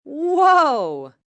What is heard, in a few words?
"Whoa" Shaky, Reaction, positive, female; Exclamation